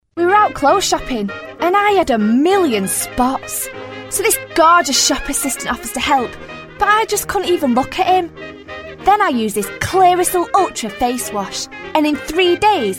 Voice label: Commercial; Clearasil; Vocal Point